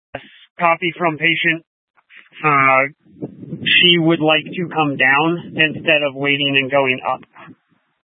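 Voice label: Incident Tac 1, Scanner audio recording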